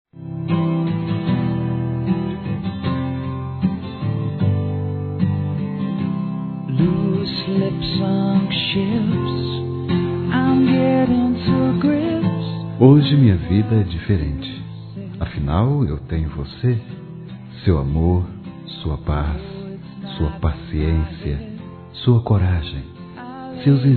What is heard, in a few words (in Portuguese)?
Obrigado por me amar geral, masc; Telemensagens 2017